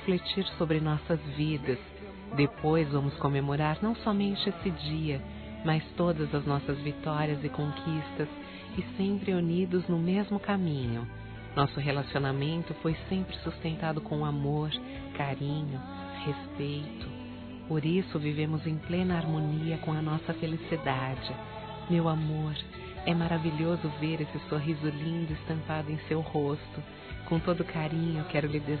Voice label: ANIV. ROMANTICO F; Aniversários; fem

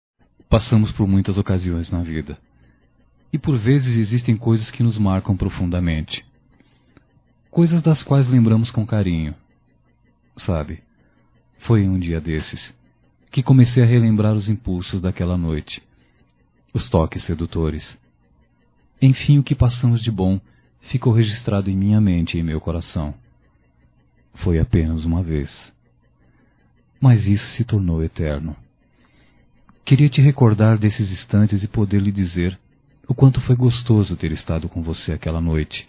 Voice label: Erótica Leve Masculino, Só Voz; Telemensagens; Telemensagens 2017

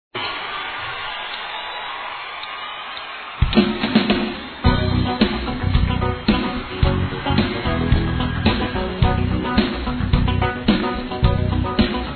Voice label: Homenagem Dia Secretaria Masculino; Homenagens

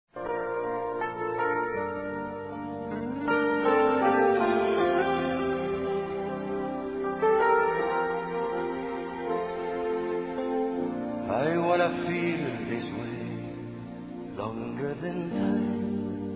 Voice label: VOCÊ MARCOU D+ M, Telemensagens; masc; Telemensagens 2017

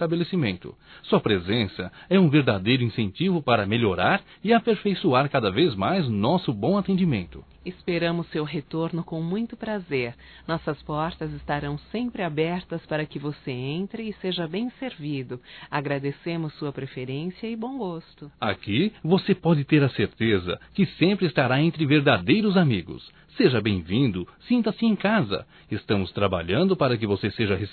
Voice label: CLIENTE CAMELô DUAS VOZES; Profissionais; fem; Telemensagens 2017

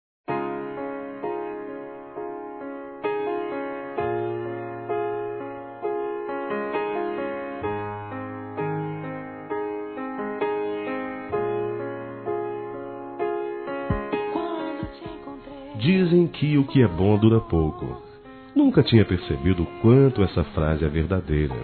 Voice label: Romantica Quero Ter Você De Novo Masculino, Telemensagens

